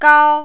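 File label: "gao", first tone, Mandarin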